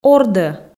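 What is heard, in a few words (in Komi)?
"ордӧ", Komi, female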